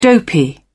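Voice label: "dopey", British English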